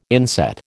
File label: "inset", American